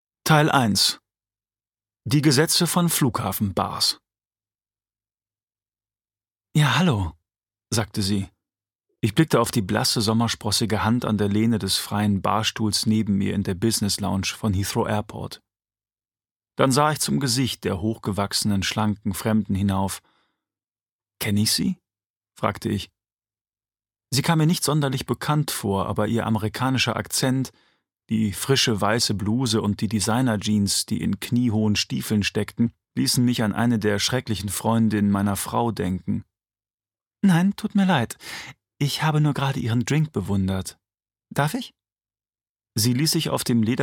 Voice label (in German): Hörbuch